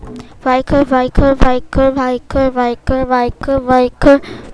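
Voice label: "bicycle", spoken word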